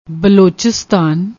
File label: "blochistaan", Punjabi